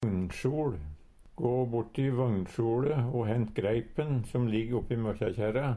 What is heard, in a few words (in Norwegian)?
"vognsjol", Numedalsmål; Gå borti vøgnsjoLe o hent greipen som ligg oppi møkjakjerra.